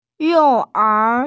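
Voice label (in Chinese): "you4er2", Mandarin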